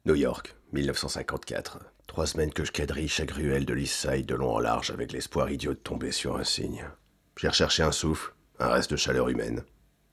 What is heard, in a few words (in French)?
Voix AUTORITAIRE du Préfet; 1597 guerres de religions